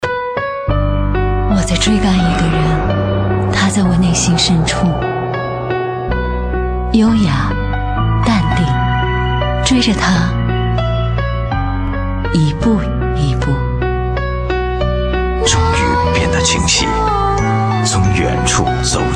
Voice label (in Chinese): 广告 品牌形象 皮鞋 男声; 森达皮鞋追赶篇